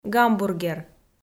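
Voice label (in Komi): "гамбургер", Komi, female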